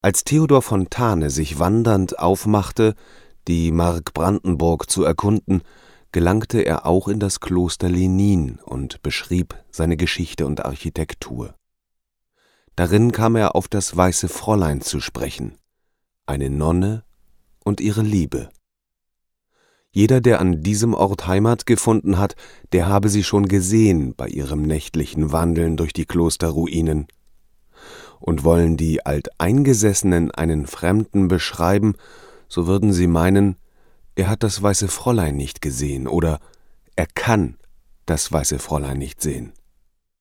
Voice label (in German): Example Hoerbuch